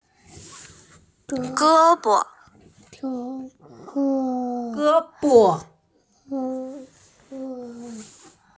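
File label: "ge1bo5", Mandarin Chinese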